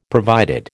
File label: "provided", American